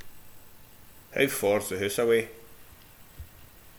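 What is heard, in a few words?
"faur", Scottish slang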